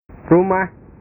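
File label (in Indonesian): "rumah", Indonesian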